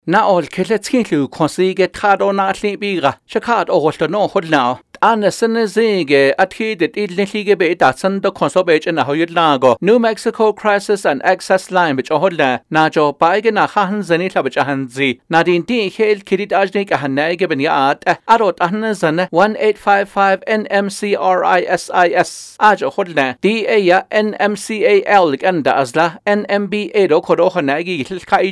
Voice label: radio, Navajo